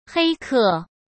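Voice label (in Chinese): "黑客", Mandarin Chinese; hsk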